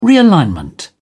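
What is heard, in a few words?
"realignment", British English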